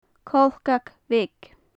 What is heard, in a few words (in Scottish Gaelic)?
"colcach-bheag", gaelic; Faclan Nàdair